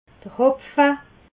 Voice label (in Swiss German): "hopfe", alsace